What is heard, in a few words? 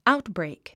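"outbreak", English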